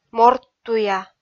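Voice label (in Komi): "морттуя", Komi, female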